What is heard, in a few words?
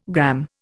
"gramme", Indian